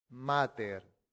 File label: "mater", Latin; santillana